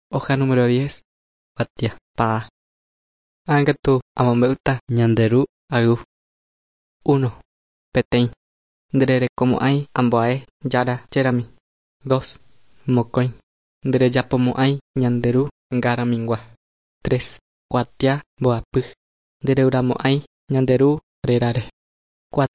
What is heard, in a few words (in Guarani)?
guarani mbya; good news and words of life; bible